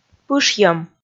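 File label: "пышйӧм", Komi, female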